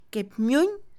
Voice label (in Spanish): Mixe, Mujer; yuca; INALI